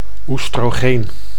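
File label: "oestrogeen", Dutch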